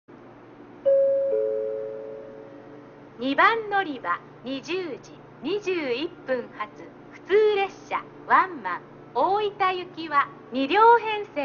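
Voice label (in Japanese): 2番のりば案内放送, 中津駅; 普通ワンマン 大分行き; ※大分寄りに停車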